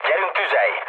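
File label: attack position, mood low, Hungarian; speech; War Thunder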